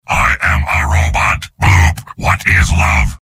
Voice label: soldier robot; mvm